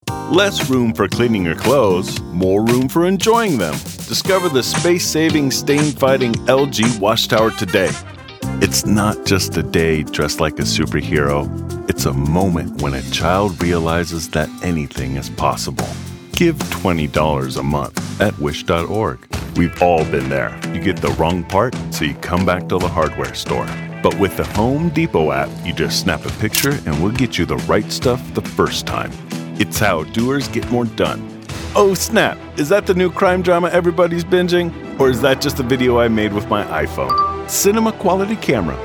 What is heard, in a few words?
Commercial